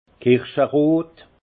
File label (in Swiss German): "kirscherot", alsace; vin; cerise